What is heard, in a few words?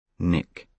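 "nick", British English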